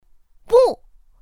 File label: "bù", pinyin; pinyin2; pinyinji